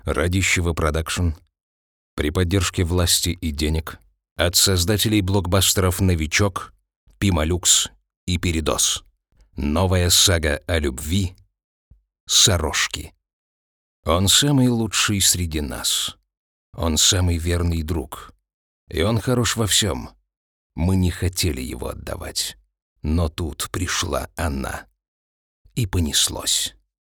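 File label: story, Russian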